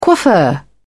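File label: "coiffeur", British English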